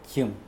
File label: "jimw", Mokilese